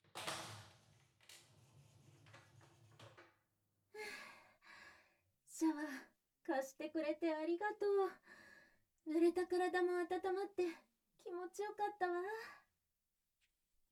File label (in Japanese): お姉さんが囁きながら全身舐めまわす, ASMR; KU100; 身も心もトロけるほど舐め犯す変態ドSお姉さん 私でしか射精(だ)せないようにい～っぱい舐めてア・ゲ・ル; SEあり